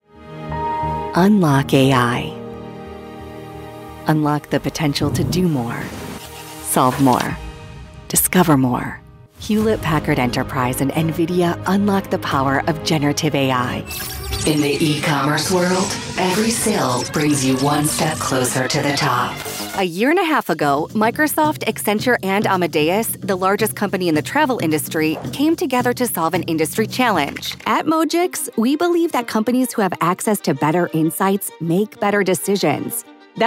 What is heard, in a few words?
american voice corporate